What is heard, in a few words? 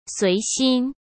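"遂心", Mandarin Chinese; chinese-flashcards; hsk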